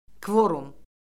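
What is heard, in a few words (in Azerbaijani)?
"kvorum", Azerbaijani; Orfoqrafiya Lüğəti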